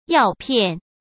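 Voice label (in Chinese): "药片", Mandarin Chinese; hsk; chinese-flashcards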